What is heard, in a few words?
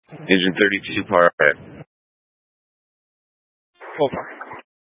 Incident Tac, scanner; Incident Tac 1